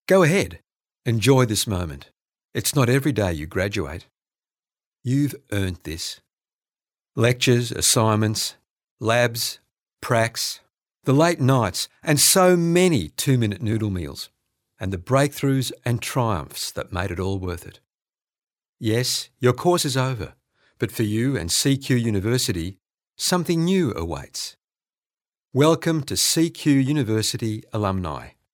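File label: Australian English, male; voice over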